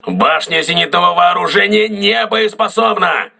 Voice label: aa turret damaged, crew ships russian

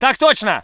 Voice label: voice sov infantry

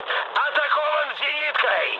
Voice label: damaged aa, mood med, speech ru; War Thunder

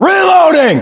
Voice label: inform reloading generic, US

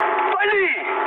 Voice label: attack position, mood med, speech ru; War Thunder

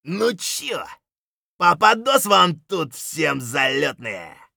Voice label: scav mutter, voice; Escape from Tarkov; scav4

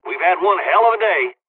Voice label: dialogs polish; War Thunder

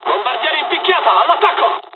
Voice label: japanese first wave, dialogs italian; War Thunder

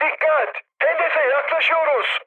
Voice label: near waypoint, mood high, speech tur; War Thunder

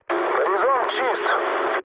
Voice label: chat, speech ru

